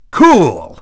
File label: dj nice; DJ VOICE; Nintendo; July 2020 Gigaleak